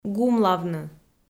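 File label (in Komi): "гумлавны", Komi, female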